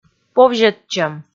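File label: "повзьӧдчӧм", Komi, female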